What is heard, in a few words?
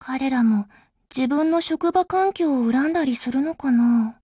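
WIN Japanese, Voice; GF Alarm; GepardM1Mod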